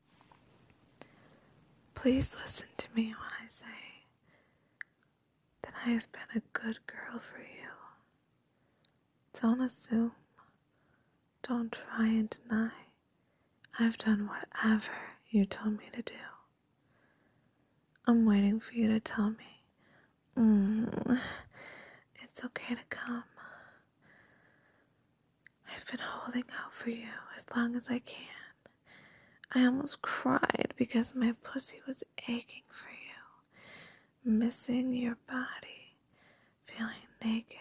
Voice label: Erotic poetry, pleading whispers, wanting, breathy need; F4M; whispered demands; LISTEN!